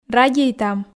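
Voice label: "радейтам" Komi, female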